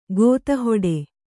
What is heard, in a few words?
"ಗೋತಿಹೊಡೆ", kannada